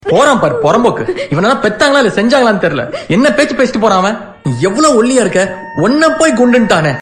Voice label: Ok Ok Tone

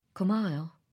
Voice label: Happy; Jaehee

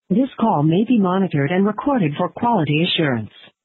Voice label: Call recording

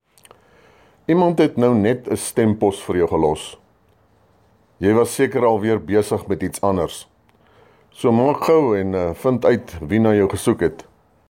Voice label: voice mail

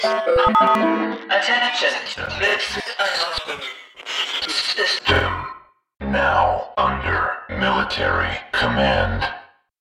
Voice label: vox military

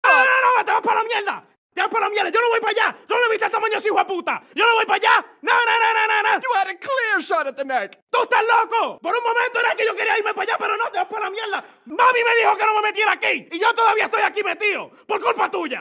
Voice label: Spanish Rant

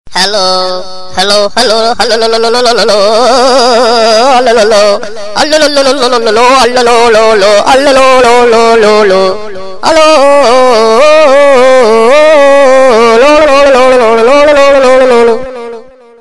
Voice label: Child Hello

